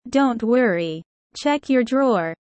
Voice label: low; studying abroad